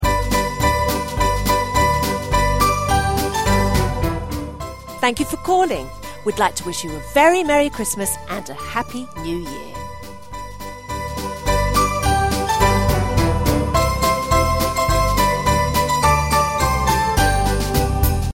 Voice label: Thankyou for calling Merry Xmas Happy New Year, christmas phone messages